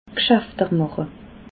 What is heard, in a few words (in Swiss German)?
"gschaftermache", alsace